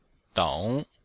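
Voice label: "dong3", Chinese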